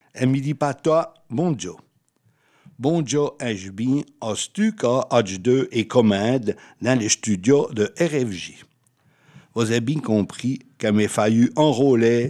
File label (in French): patois; RFJ